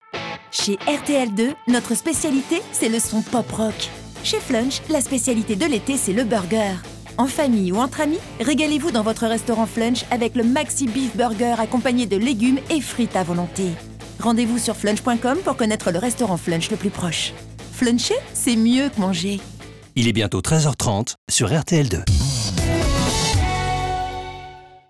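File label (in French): Girly Pop rock, voix off féminine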